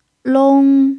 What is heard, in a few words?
"long1", chinese